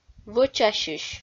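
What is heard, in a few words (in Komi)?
"вӧчасьысь", Komi-Zyrian, female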